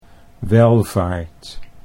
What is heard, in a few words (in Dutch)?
"welvaart", Dutch